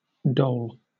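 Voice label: "dole", British English; pronunciations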